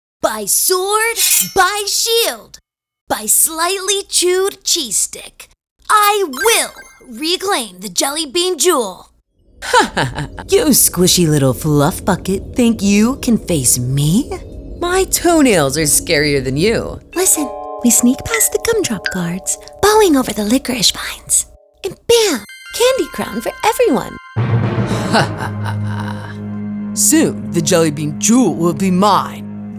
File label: female voiceover animation character